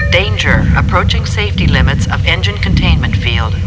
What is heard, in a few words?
contain, computer